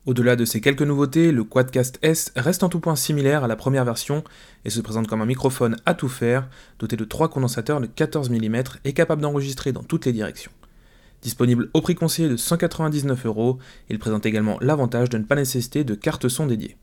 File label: record quadcast s base